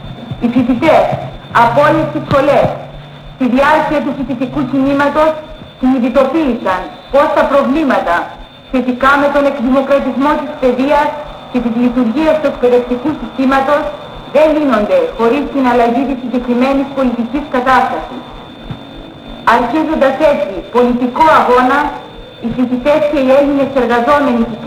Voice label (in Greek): Το πρώτο ραδιοφωνικό μήνυμα των φοιτητών